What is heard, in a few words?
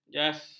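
"jas", Malay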